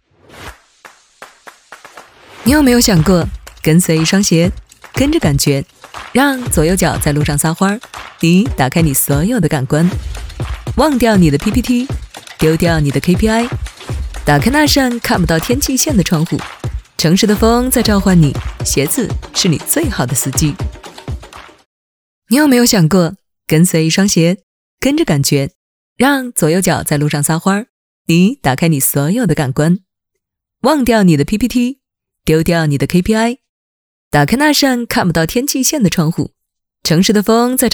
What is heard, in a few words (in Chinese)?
女 广告; 女4-广告-CityWalk